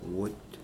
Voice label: rain, Mokilese